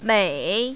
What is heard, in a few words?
"mei3", mandarin, female; 美; мэй3; 遷; цянь1